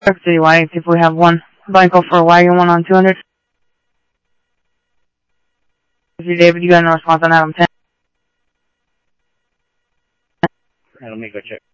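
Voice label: police department, scanner audio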